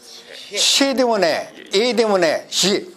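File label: "しぇ", Minamiyamagata dialect Japanese; 良い。; しぇでもない、えでもない、; 音声スクリプト; 標準語; よい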